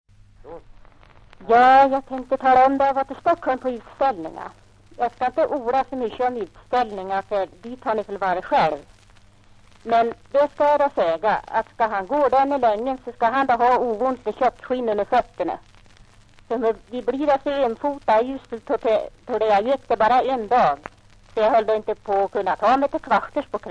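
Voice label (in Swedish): Arbrå Hälsingland, dialekter; Folkminnen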